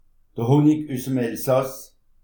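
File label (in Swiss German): "honni", Alsatian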